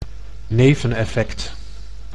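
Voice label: "neveneffect", Dutch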